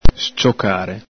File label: "sciocare", Veneto